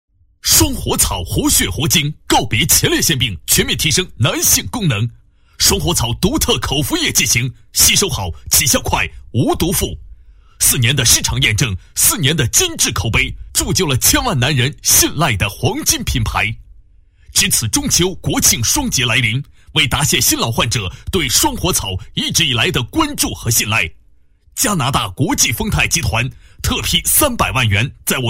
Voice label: 男40 直销医疗广告 草药, 国语男声配音

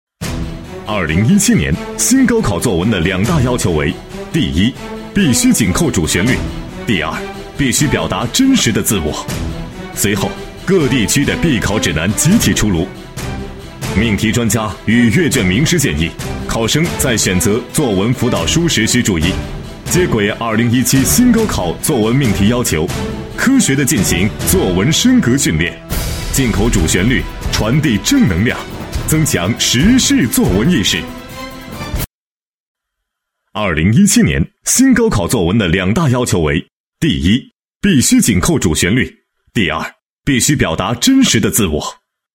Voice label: 男, 教育品牌, 作文命题配音; 2017“新高考”

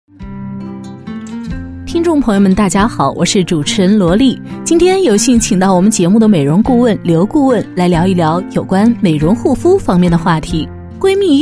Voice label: 女 主持 美容护肤, 国语女声配音

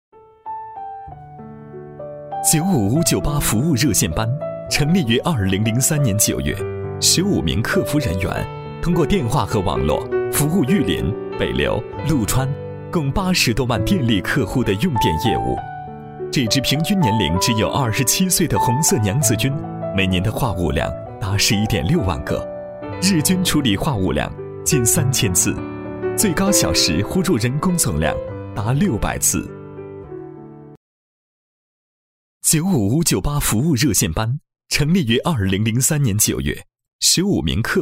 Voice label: 男 企业宣传 介绍配音; 南方电网服务热线班

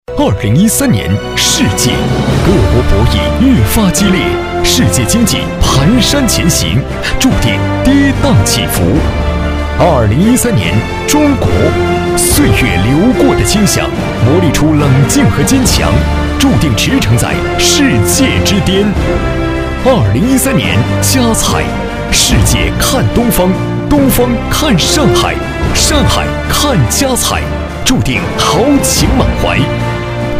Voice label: 男 浑厚大气, 国语男声配音 磁性; 佳禾

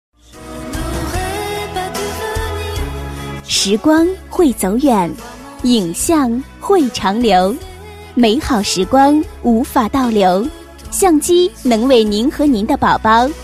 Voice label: 女, 广告, 国语女声, 年轻; 儿童摄影

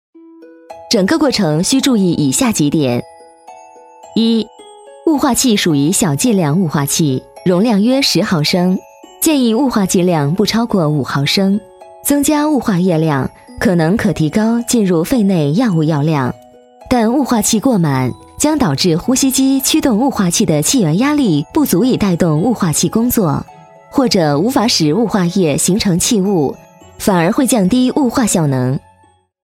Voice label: 女 专题 雾化器用法讲解, 国语女声配音

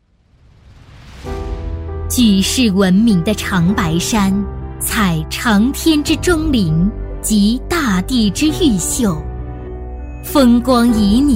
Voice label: 女 风情宣传片 激情大气; 长白山池西区

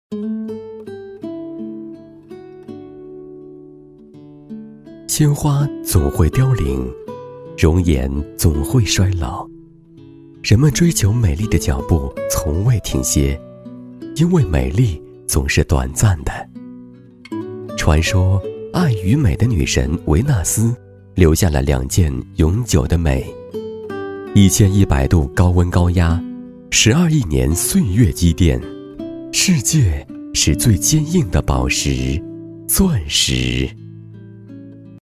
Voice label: 男 专题 浪漫温馨

